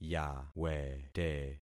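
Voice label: "yawete", concatenated syllables; Affixing experiment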